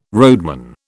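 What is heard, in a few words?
"roadman", British English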